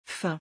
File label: "faim", French